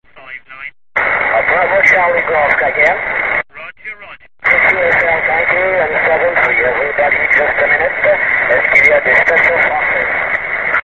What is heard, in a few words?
qso